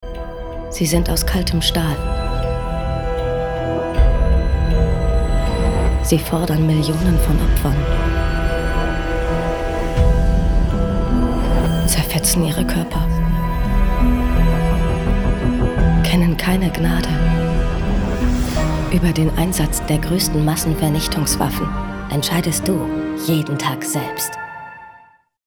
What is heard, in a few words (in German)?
TV Socialspot; Peta